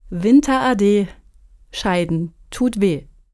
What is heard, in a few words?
german folk saying winter farewell